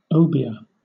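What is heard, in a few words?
"obeah", British English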